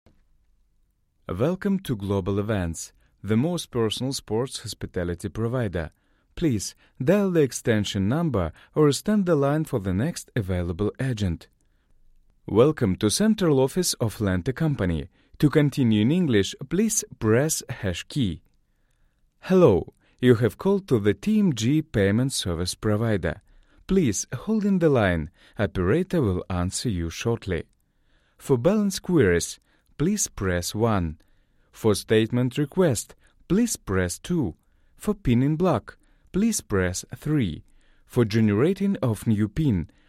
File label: IVR